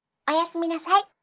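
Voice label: "oyasuminasai", Japanese; aisatsu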